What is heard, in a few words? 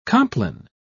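"complin", British English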